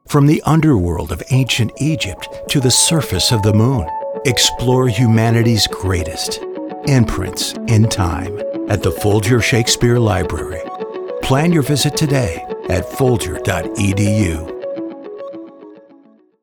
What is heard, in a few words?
Approachable Brand Video